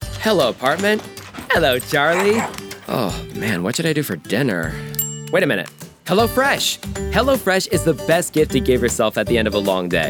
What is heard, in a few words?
Commercial; Voice Actor from good ol' Virginia. Virginia Beach